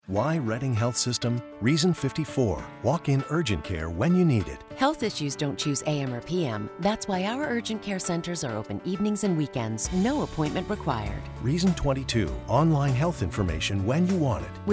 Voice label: Radio Spot; Reading Health Sys